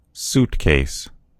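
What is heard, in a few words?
"suitcase", American English; gcompris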